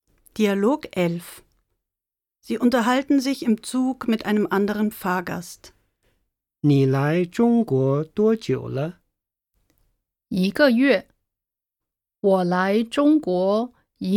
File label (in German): Dialog